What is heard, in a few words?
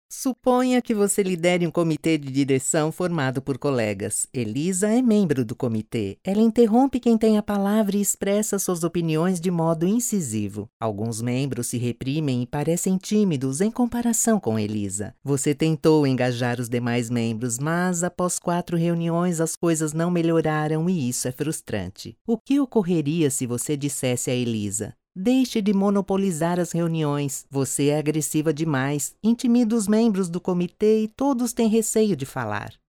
portuguese brazilian e-learning